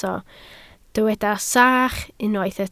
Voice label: "sach", Welsh

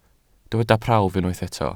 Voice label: "prawf", Welsh

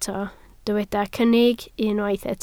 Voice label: "cynnig", Welsh

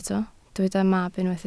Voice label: "mab", Welsh

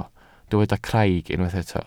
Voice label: "craig", Welsh

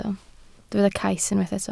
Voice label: "cais", Welsh

